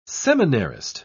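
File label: "seminarist", English, British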